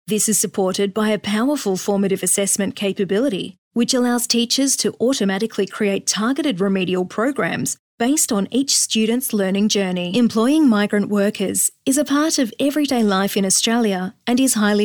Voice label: Corporate